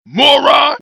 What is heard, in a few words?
move, grunt